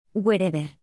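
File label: "wherever", English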